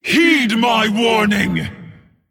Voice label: Female Dragon Attack

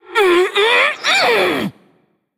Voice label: Female Forsaken Attack

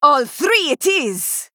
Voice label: Female Draenei Attack

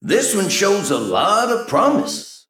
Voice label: Male Mech Recruit Small Minion

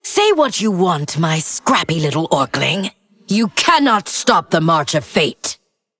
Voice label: Female Undead

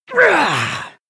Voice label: Female Blood Elf Attack

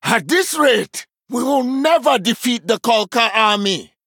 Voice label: Male Troll; Hero Brukan; Story Guff Mission3 Exchange B

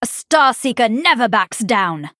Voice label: Female Night Elf

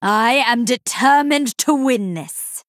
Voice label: Female Night Elf Start

